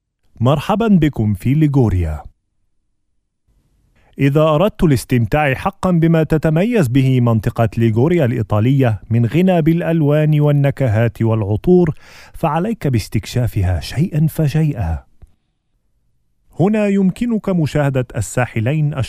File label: travel video narration